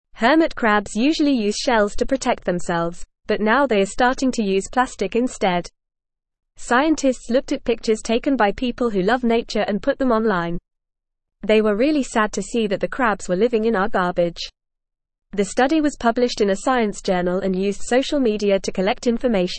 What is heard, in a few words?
English Upper Intermediate FAST Reading; English Newsroom; Hermit Crabs Worldwide Using Plastic Waste as Shell